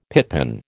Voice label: "pitpan", American

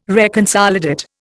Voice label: "reconsolidate", Indian

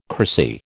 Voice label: "cracy", American

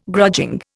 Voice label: "grudging", Indian

